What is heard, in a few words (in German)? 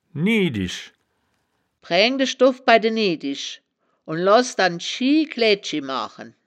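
"niedisch", wittlicher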